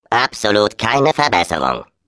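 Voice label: Defective Turret turret return defect fail, German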